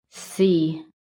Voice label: "SEE", american